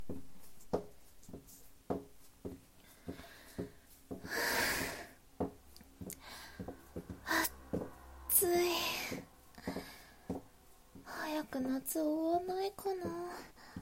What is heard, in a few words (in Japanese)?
ささやき; りそうのかのじょ6